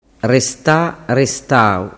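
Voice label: "restatau", Sicilian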